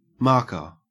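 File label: "marker", Australian English; pronunciations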